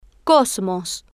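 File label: "cosmos", Spanish, female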